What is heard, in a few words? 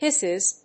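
"Hisses", English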